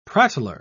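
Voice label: "prattler", English, British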